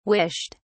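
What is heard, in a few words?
"wished", English, British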